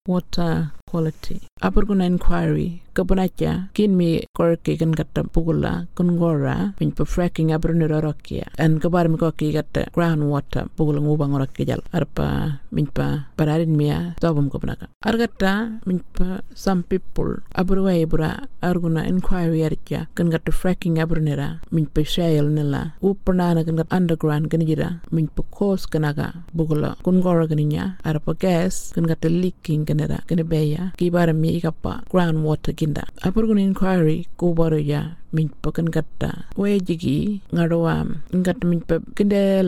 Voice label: Burarra; WATER QUALITY